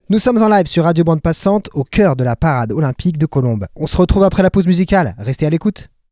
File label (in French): VOIX SEULE annonce parade interlude; RADIO BANDES PASSANTES; PARADE OLYMPIQUE